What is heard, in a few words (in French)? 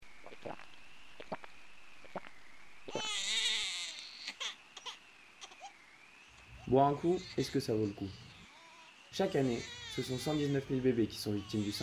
Spot Radio